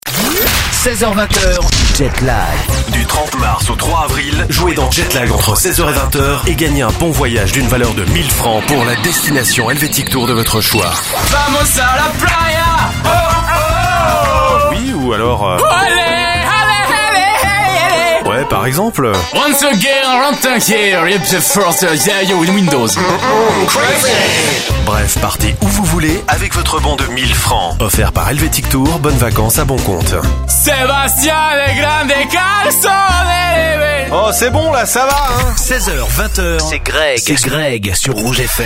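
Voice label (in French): spot, spots radio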